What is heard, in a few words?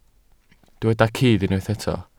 "cudd", Welsh